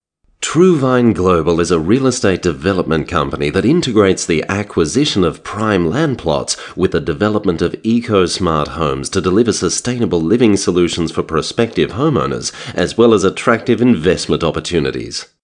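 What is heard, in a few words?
Trevor (M) EN(AUS), Australian English, male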